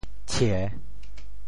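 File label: "tshie3", Teochew; 唱; chàng; 白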